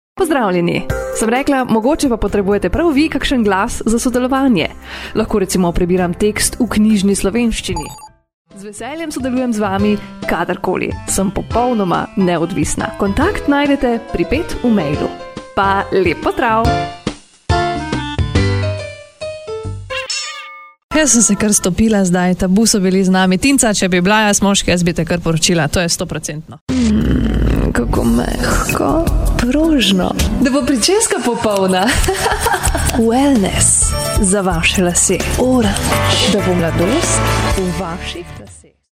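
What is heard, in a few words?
Slovenian, female; Professionelle Sprecher und Sprecherinnen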